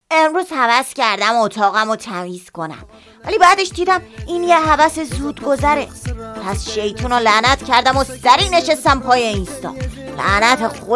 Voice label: tipsazi boy